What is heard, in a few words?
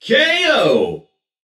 spry male narrator "ko"; soundscrate